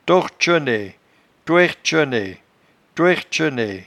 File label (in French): "tortchenaie", patois jura